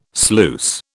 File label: "sluice", British